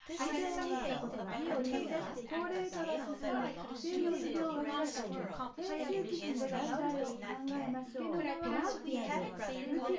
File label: female synthconv mix